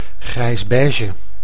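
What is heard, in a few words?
"grijsbeige", Dutch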